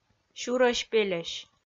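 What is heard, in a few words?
"сюрӧсь-пельӧсь", Komi, female; kpv audio dict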